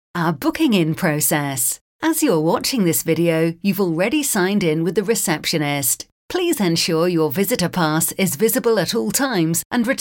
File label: e-learning